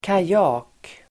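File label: "kajak", Swedish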